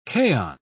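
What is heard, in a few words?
"kaon", British English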